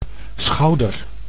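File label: "schouder", Dutch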